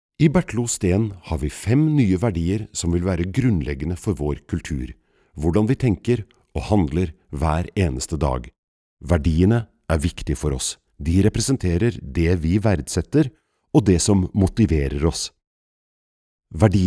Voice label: elearning; Bertel O Steen

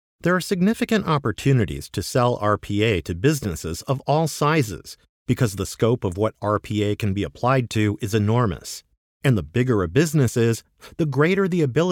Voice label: e-learning conversational

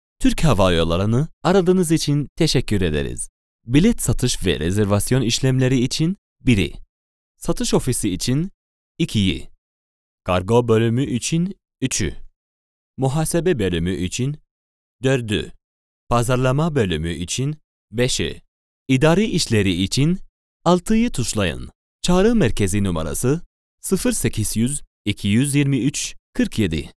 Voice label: Turkish Airlines Turkish

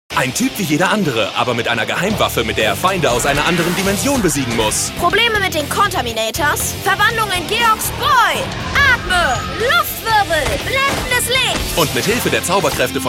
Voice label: TV Spot; Geox

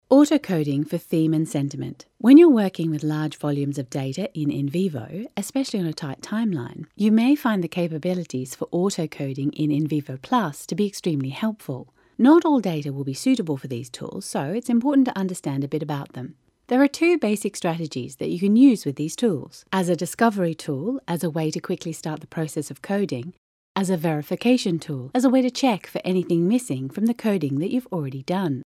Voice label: Explainer video; NVivo

